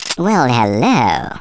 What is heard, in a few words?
"collect", speech; Worms Armageddon